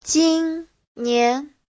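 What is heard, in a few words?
"jīnnián", Mandarin Chinese; 今年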